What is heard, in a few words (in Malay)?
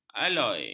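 "aloi", Malay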